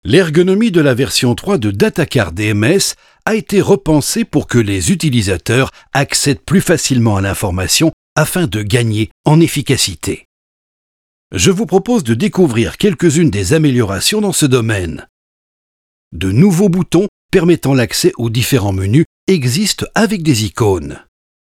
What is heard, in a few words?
Corporate Normal